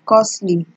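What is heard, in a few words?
"costly", Nigerian Pidgin, female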